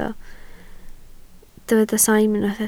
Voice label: "saim", Welsh

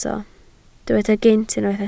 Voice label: "gynt", Welsh

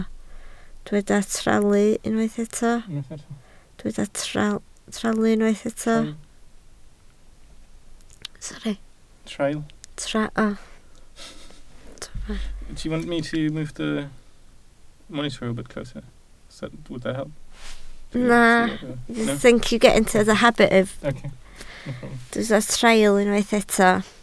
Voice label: "traul", Welsh

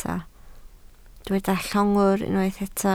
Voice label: "llongwr", Welsh

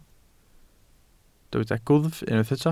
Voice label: "gwddf", Welsh

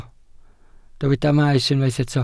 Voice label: "maes", Welsh

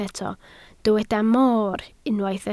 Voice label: "moor", Welsh

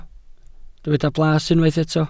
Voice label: "blas", welsh

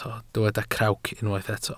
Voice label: "crawc", Welsh

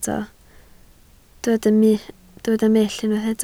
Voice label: "mull", Welsh

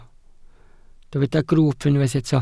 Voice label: "grwwp", Welsh

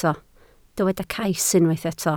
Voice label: "cais", Welsh

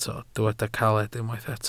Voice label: "caled", Welsh

